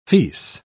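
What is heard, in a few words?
"fils", British English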